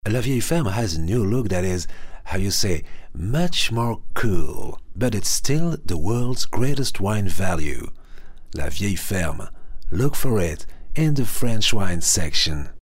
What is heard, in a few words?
french accent; foreign languages